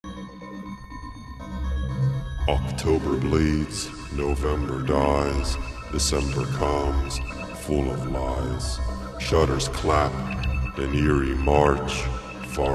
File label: poem; fall